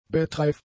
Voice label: "bettreif", German; adjectives